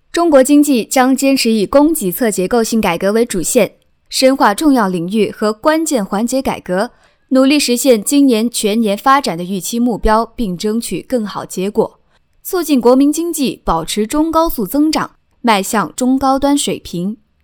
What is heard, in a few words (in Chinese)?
新闻类